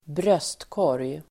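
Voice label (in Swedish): "brödkorg", Swedish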